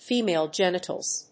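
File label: "female genitals", English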